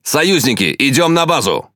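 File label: Russian Speech Quick Commands Enemy Base; World Of Warships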